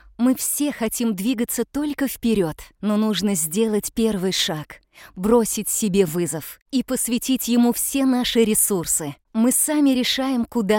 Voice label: Rusca Kadin, Seslendirme, Kadın Ses

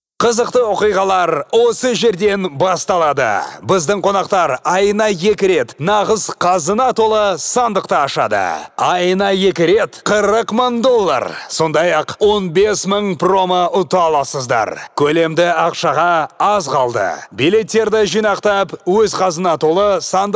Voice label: Kazakca Erkek, seslendirme

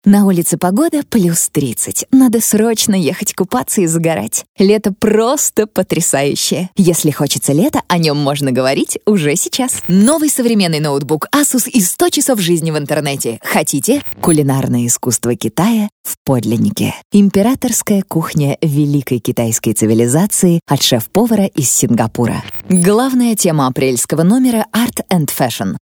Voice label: Rusca Kadin, Seslendirme, Kadın Ses

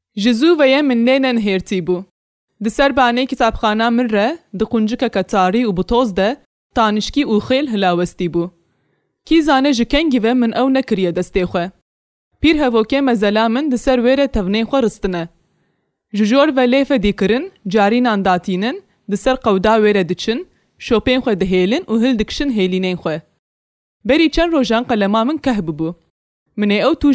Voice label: Kurtce Kadin Soranice; kurtce seslendirme; kadin sesler

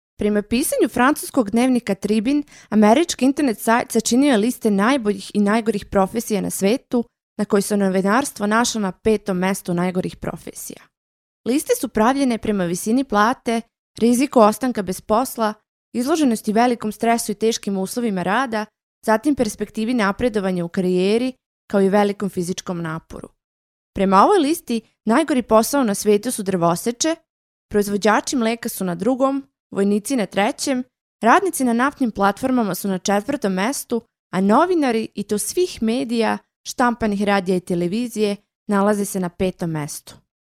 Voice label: Sirpca Kadin, sirpca seslendirme; kadin sesler

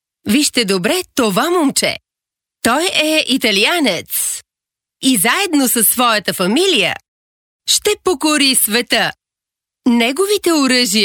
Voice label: Bulgarca Kadin, seslendirme

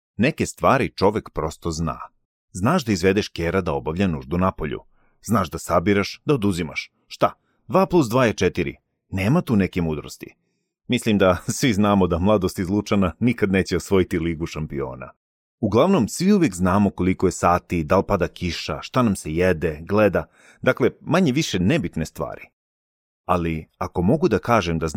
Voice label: Sirpca Erkek, seslendirme; erkek sesler

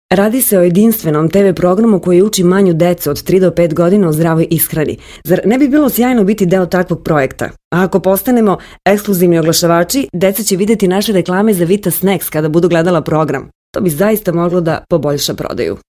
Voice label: Sirpca Kadin, sirpca seslendirme; kadin sesler

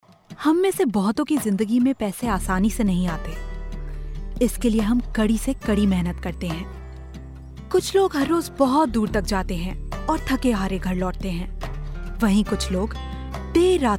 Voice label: Hintce Kadin; seslendirme

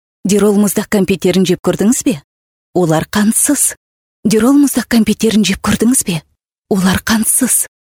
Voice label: Kazakca Kadin, Kadın Ses; seslendirme

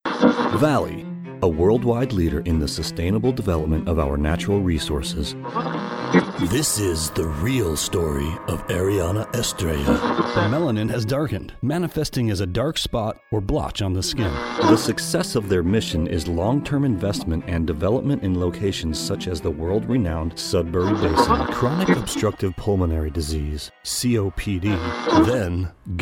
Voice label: Amerikan Ingilizcesi Erkek, Erkek Ses

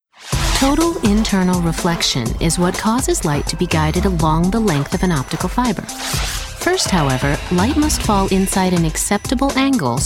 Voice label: Amerikan Ingilizcesi Kadin, Kadın Ses

